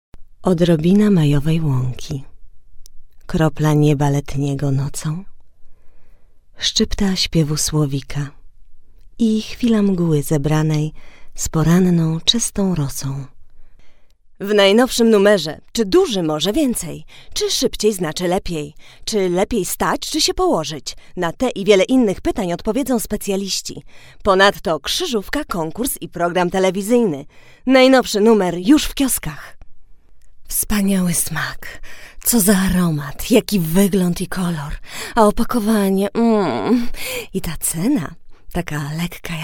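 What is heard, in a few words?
polish female voice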